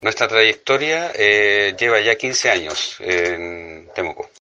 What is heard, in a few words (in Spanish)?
Cuña